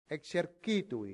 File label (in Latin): "exercitui", Latin; santillana